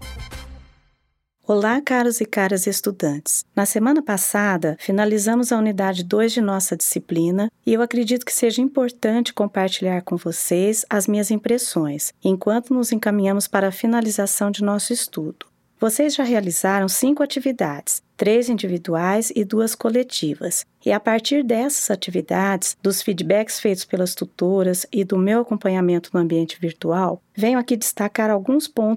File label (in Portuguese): podcast